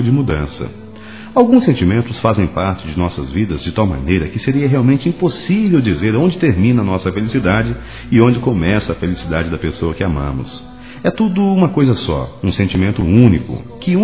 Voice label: NATAL ROMANTICO, masc; Telemensagens; Natal e Ano novo

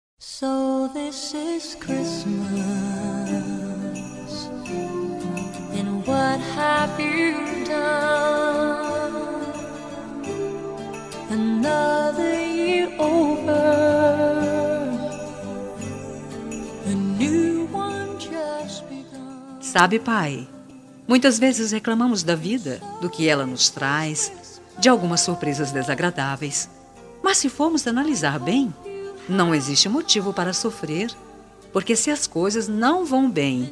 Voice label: ANO NOVO P PAI FEM; MERRY CHRISTMAS HAPPY 2013 BOAS FESTAS FELIZ 2013; Datas importantes; Natal e Ano novo

